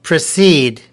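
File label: "precede", American English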